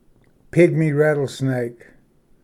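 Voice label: "pygmy rattlesnake", English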